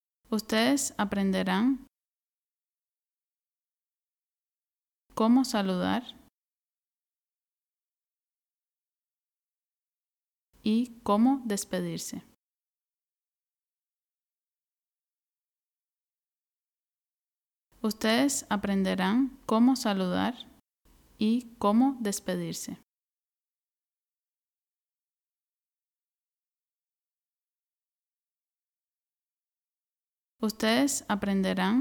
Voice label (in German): Hörprobe A1 erste Worte spanisch Nachsprech